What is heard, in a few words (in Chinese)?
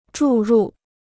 "注入", Chinese